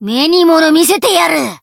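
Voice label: Voice Japanese; morgana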